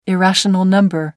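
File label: "irrational number", British English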